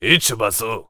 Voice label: warrior conversation emotion excited